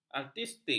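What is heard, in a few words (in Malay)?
"artistik", Malay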